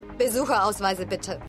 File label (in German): Wachfrau, Stimmproben; McCulloch; The Flash